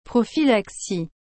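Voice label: "prophylaxie", French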